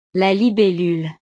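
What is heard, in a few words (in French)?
"la libellule", French; la libélula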